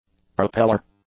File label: "propellor", English; nouns